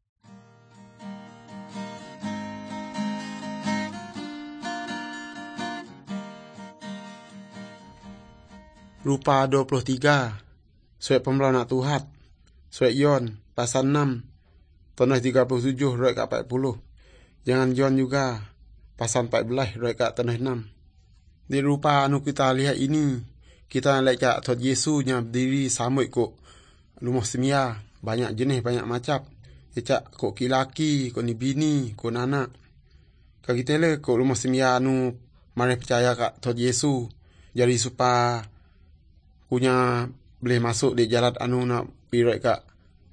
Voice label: good news, urak lawoi

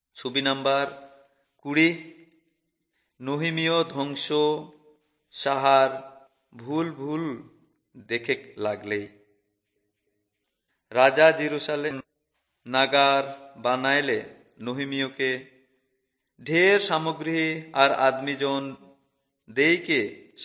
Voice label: urao, drama

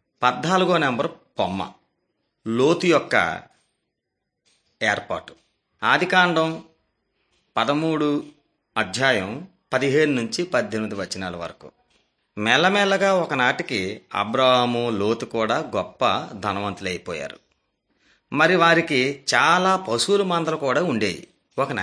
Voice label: telugu bangladesh; beginning with god

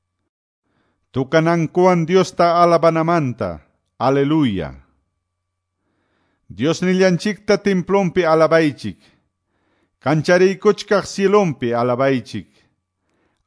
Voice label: salmos, quechua ayacucho

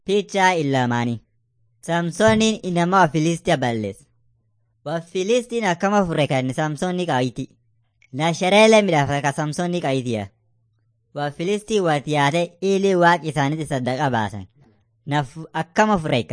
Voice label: afan munyoyaya

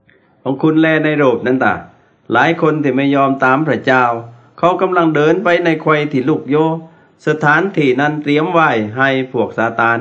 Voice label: words of life creation to christ thai southern

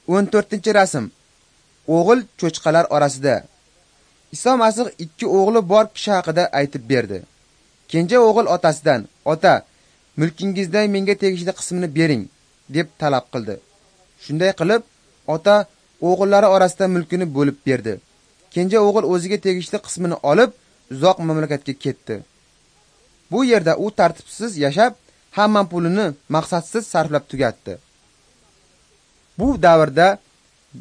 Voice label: uzbek northern

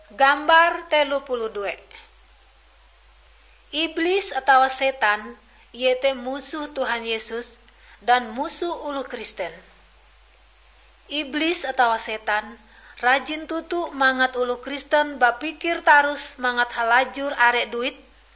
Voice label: kabar bahalap good news ngaju, drama

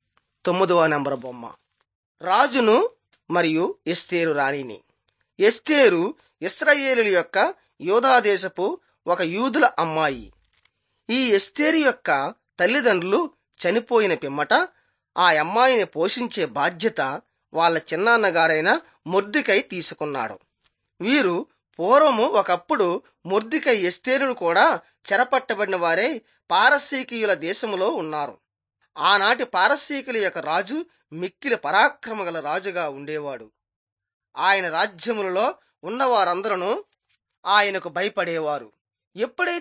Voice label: telugu bangladesh; on trial for god

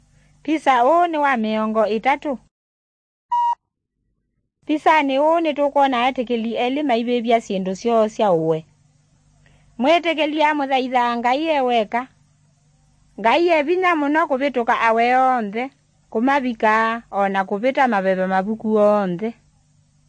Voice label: kikamba drama; good news; bible

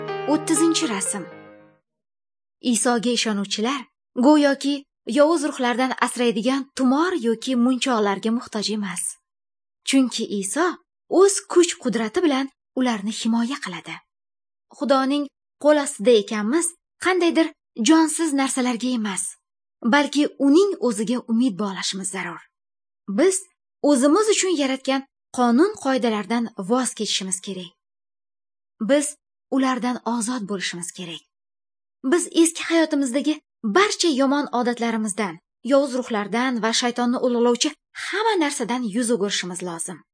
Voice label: good news female uzbek northern

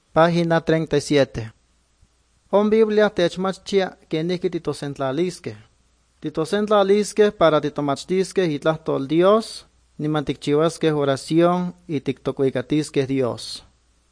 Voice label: good news, nahuatl guerrero chilapa

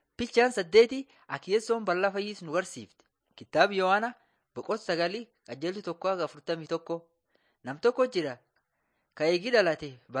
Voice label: borana sakuye

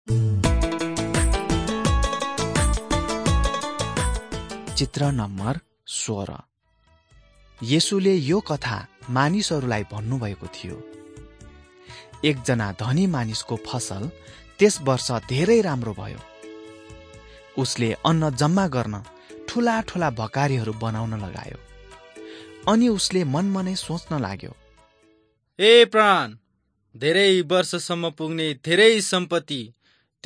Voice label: jesus lord and saviour nepali

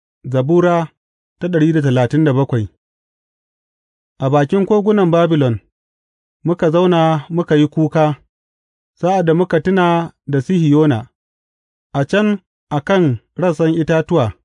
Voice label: hausa bible drama, psalms; hausa bsn nt drama

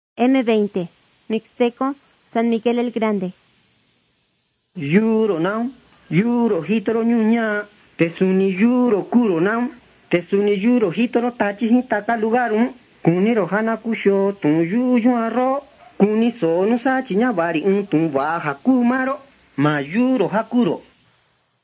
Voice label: mixtec diagnostic spanish mexico

